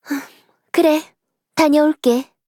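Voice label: voice, Korean; Eve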